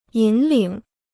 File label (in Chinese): "引领", Chinese